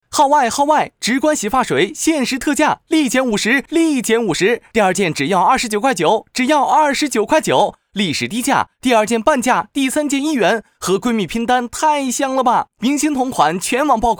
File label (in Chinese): 洗发水 促销 广告; 植观